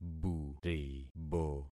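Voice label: "buribo", concatenated syllables; Affixing experiment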